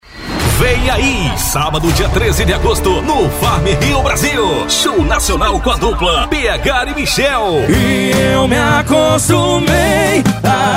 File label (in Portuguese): LOCUTOR